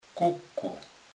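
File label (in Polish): "kuku", Kurpie dialect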